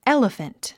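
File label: "elephant", English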